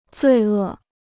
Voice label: "罪恶", Chinese

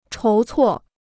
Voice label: "筹措", Chinese

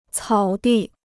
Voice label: "草地", Chinese

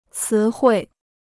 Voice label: "词汇", Chinese

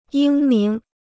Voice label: "英明", Chinese

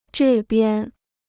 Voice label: "这边", Chinese

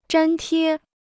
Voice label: "粘贴", Chinese

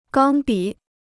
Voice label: "钢笔", Chinese

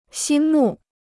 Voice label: "心目", Chinese

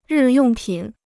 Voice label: "日用品", Chinese

